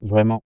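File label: male "vraiment" flattened transformed, French; CLEESE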